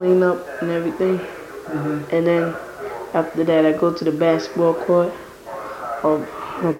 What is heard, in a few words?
Conversation with 14 year old black male New York New York, american english dialect